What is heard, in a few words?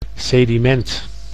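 "sediment", Dutch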